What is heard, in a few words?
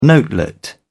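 "notelet", British English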